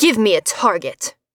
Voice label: Female Elven Archer

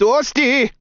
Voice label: Tesla Taunt

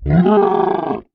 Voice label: Viking Start Attack

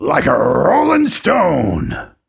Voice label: Stone Druid Taunt

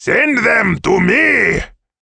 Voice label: dwarf Hero taunt confirm

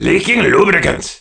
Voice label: mecha taunt slow

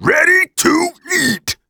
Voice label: voice bog hermit power

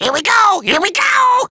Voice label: voice demon pit taunt

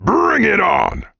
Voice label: Barbarian Taunt

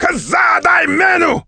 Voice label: dwarf taunt

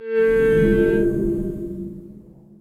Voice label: Viking Call

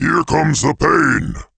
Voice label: giant taunt confirm

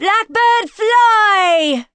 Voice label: crossbow taunt eagle

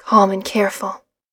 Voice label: Female Elven Archer

